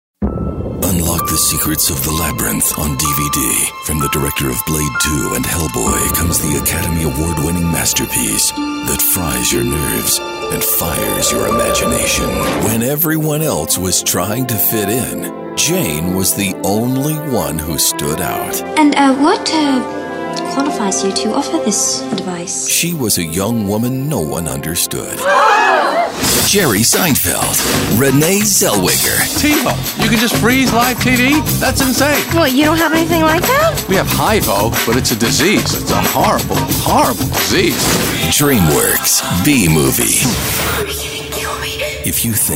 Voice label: Trailer